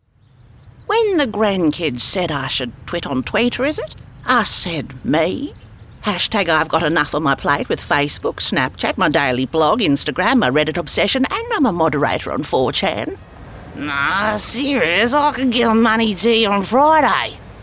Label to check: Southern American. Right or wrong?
Wrong